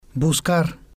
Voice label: "buscar", spanish